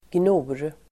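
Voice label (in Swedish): "gnor", Swedish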